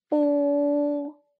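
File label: "bu", Mandarin pinyin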